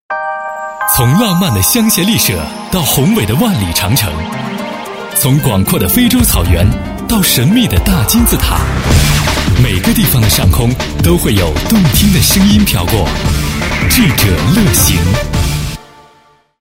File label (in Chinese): 男 电台; 智者乐行